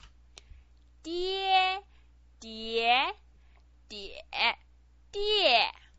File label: "die", Chinese pinyin